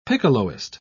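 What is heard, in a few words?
"piccoloist", British English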